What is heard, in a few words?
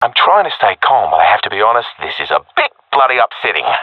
scared, professional epic; vo; Source Model Packs; Counter Strike Model Pack; CS2